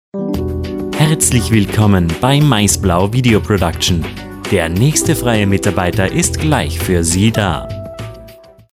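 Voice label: austria male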